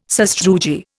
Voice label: "sastrugi", Indian

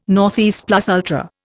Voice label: "ne plus ultra", Indian

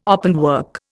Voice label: "openwork", Indian

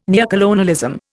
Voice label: "neocolonialism", Indian

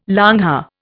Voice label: "longhair", Indian

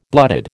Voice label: "blooded", American

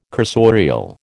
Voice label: "cursorial", American

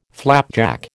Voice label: "flapjack", American

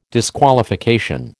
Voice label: "disqualification", American

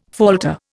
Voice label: "falter", Indian

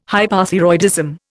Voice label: "Hypothyroidism", Indian

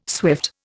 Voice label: "swift", Indian

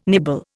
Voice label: "nibble", Indian

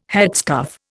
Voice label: "headscarf", Indian

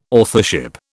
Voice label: "authorship", British

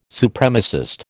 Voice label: "Supremacist", American

